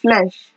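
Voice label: "flesh", Nigerian Pidgin, female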